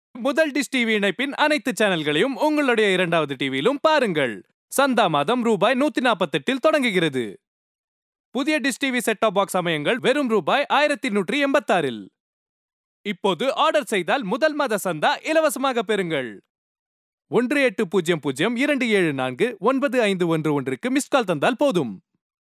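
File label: Tamil Sri Lanka male upbeat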